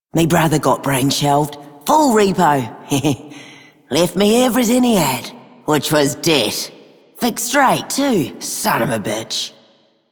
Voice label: female worker; Female Two; en; Dialog; Warframe; Solaris Venus